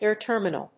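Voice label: "air terminal", English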